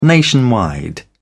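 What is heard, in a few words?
"nationwide", British English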